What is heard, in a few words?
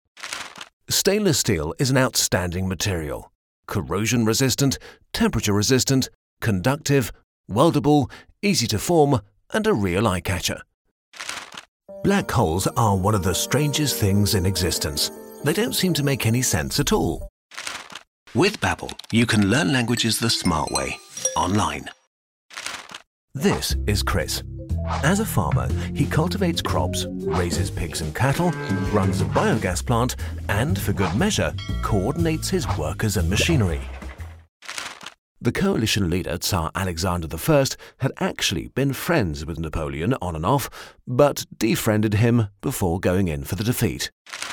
Mixed, English NEUTRAL, Native English UK; Mix Demo